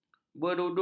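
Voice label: "berudu", Malay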